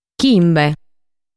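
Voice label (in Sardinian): "Chimbe", Sardinian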